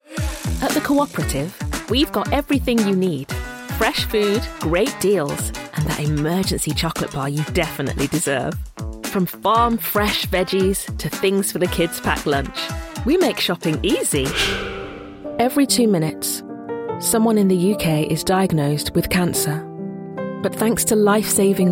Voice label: Commercial